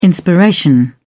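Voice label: "inspiration", British English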